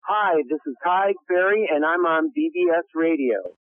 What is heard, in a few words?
bbs radio promo